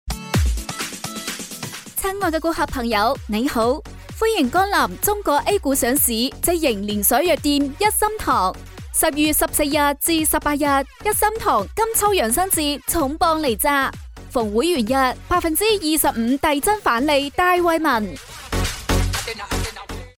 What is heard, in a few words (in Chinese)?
粤语广告促销